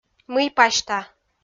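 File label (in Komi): "мый пасьта", Komi, female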